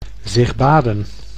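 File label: "zich baden", Dutch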